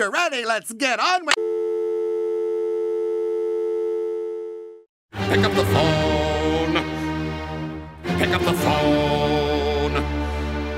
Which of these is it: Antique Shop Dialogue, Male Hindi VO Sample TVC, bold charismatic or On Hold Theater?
On Hold Theater